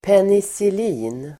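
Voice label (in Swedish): "penicillin", Swedish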